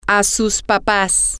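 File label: your parents, spanish, female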